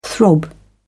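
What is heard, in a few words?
"throb", British English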